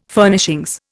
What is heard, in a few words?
"Furnishings", Indian